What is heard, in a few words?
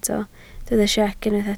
"siec", Welsh